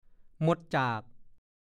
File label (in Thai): "มดจาก", ภาษาโคราช; หมดก่อน; พจนานุกรมภาษาโคราช